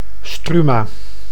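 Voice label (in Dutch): "struma", Dutch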